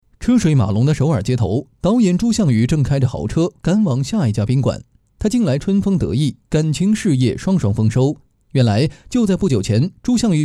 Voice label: 电影解说

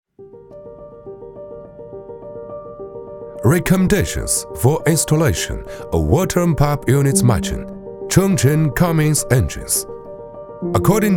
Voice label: 产品介绍

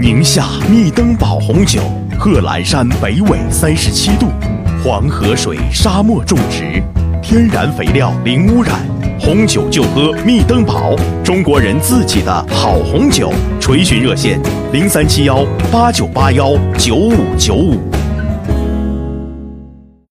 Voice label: 企业宣传; 宁夏密登堡红酒

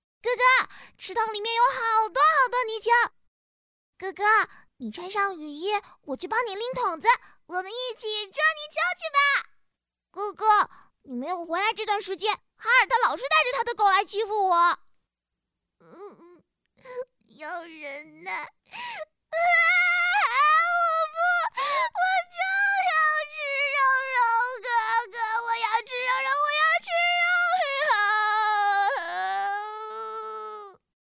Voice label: 配音声线展示萝莉

